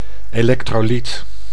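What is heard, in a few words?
"electrolyt", Dutch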